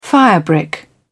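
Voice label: "firebrick", British English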